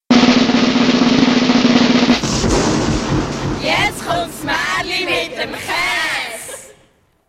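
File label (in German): Jingle Maerli geflüstert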